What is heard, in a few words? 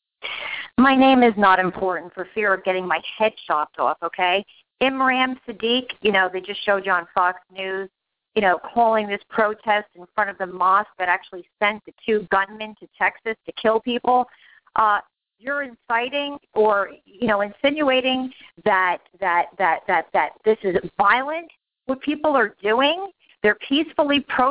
Voicemail